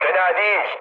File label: trick, mood med, speech tur; War Thunder